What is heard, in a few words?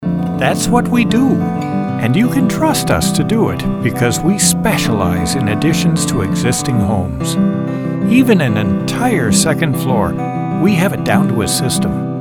Explainers